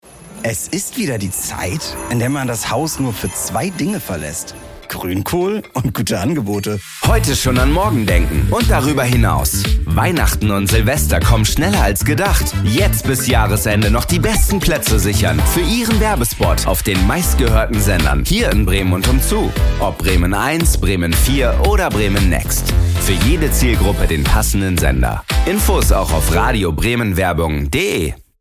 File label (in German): Eigenwerbung fuer Radiowerbung; Herbstspot